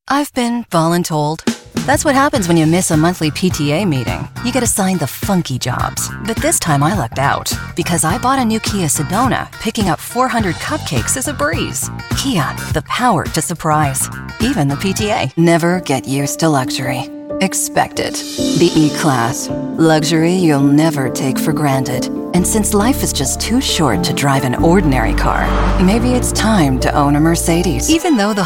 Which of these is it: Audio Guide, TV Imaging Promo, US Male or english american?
english american